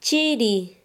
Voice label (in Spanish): "frio", Quechua Chanka